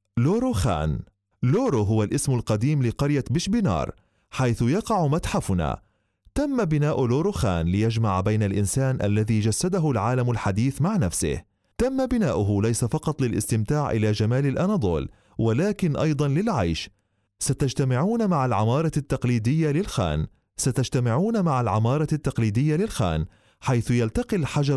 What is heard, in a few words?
arabic